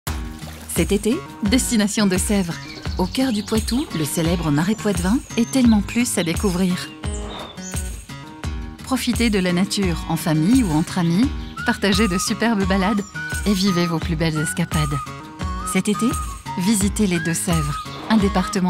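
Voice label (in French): pub voix off, French voice over advert; deux sevres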